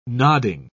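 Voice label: "nodding", British English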